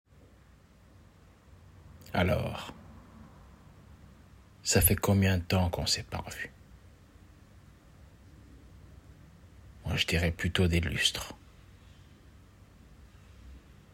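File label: French, General; GoLocalise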